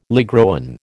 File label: "ligroin", American